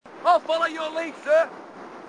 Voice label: ill follow your lead sir, marine aussie; h2dialogue